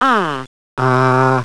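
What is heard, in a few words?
open front unrounded; london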